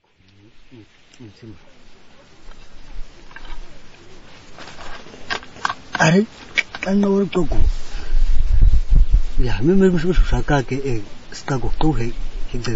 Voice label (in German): klicksprache